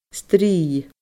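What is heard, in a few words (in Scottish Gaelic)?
"strì", Scottish Gaelic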